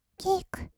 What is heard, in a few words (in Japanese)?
キック, kawaii voice; 音ゲー